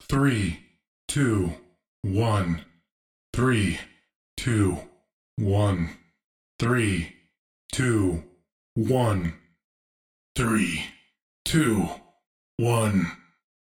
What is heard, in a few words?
3 2 1 Countdown Announcer Vo Multiple Takes Wet